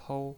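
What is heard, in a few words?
"pou" first tone, pinyin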